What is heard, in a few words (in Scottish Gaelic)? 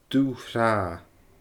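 "dubh thràth", Scottish Gaelic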